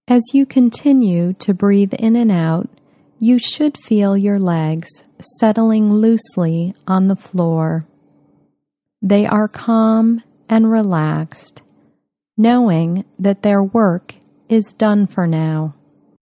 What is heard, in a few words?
Adult Relaxation Guided Imagery